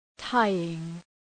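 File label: "tying", English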